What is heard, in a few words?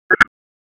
Police Tac, p25; Raleigh; Southeast District Tac 1; wake